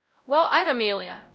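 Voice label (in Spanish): Voice Acting, es, female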